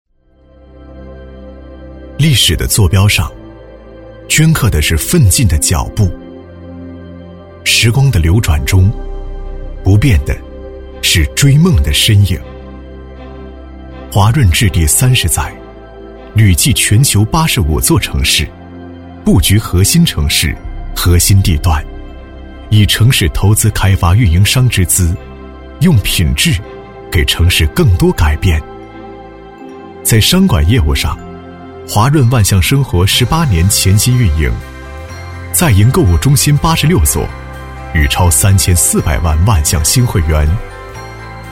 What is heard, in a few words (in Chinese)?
男 质感 高级; 华润置地; 大气主流